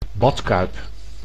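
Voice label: "badkuip", Dutch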